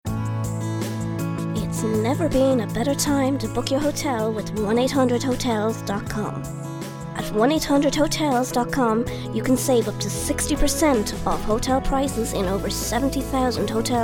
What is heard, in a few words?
Ad